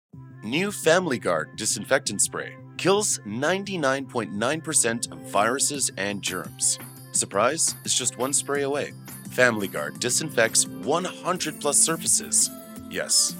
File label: Warm; Family Guard